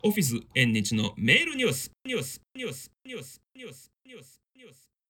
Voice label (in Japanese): エコー